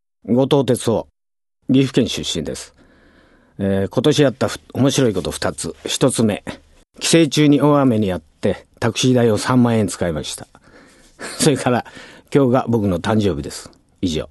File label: freetalk, male voice